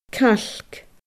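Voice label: "cailc", Scottish Gaelic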